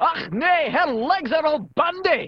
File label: german voice; BALLY; CORVETTE